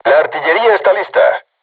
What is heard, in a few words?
voice message art charged, crew tanks spanish